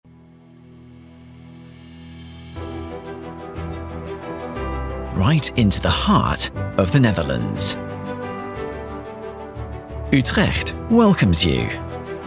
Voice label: Convention Bureau Marketing Promo Video young UK male voice over artist; Utrecht